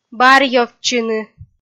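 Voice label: "барьёвтчыны", Komi, female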